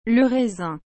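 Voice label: "le raisin", French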